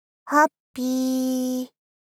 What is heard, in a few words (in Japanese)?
はっぴ～～ 妹系 ダウナー 中音域